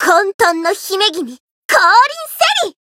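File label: Voice variable chant Japanese; suzette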